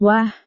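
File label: "wah", Indonesian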